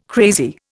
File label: "crazy", Indian